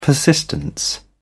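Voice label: "persistence", British English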